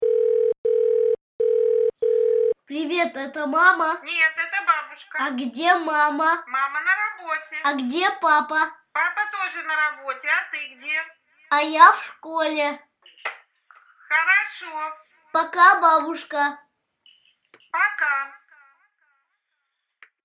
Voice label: dialog